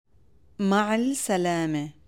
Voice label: Arabic greetings